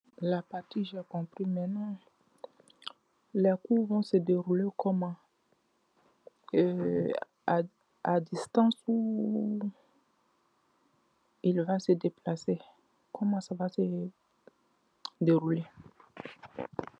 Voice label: voice message